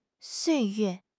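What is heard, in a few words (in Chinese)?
"岁月", Chinese; HSK6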